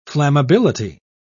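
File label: "flammability", British English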